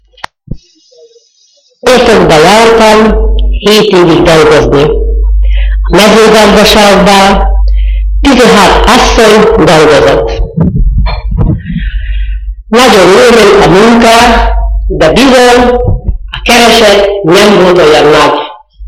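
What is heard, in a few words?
dialect collection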